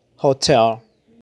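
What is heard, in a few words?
"Hotel", pronunciation; Vacaciones; holidays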